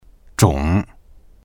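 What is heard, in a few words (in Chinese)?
"zhong" Chinese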